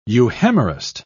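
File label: "euhemerist", British English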